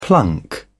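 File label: "plunk", British English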